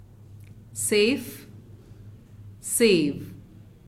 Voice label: "Safe" "save"